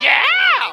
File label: "yeah" cartoon, voice